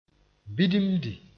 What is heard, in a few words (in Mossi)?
"bidimdi", moore; tilting